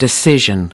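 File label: "decision", English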